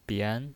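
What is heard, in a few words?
"bian", Mandarin Chinese, second tone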